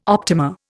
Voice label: "optima", Indian